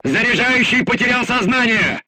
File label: voice message charger contused, crew tanks russian